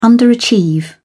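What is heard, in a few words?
"underachieve", British English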